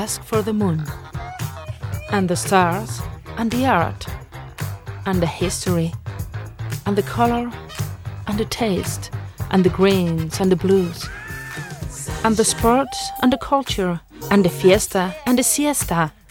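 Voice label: Spain's Tourism in English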